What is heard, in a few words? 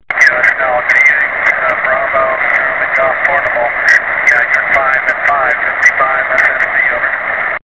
ssb qso